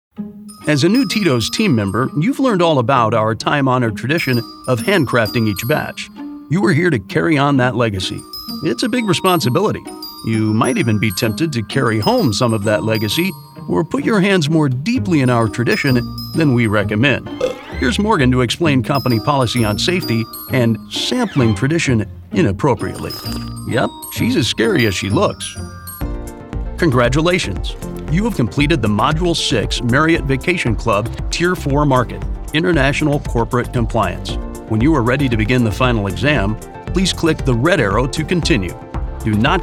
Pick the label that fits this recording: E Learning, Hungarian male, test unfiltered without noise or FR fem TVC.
E Learning